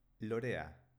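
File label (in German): "lorea", baskische Mädchennamen, Aussprache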